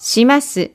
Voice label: "shimasu", Japanese